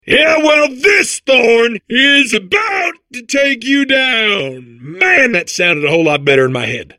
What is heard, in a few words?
babble; Portal 2